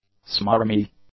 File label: "smarmy", English; adjectives